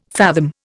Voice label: "fathom", Indian